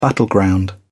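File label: "battleground", British English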